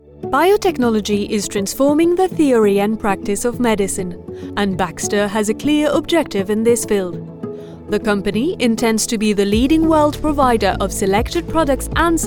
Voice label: female french english with french accent corporate compilation